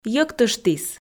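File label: "йӧктыштіс", Komi, female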